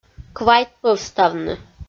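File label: "квайтпӧвставны", Komi, female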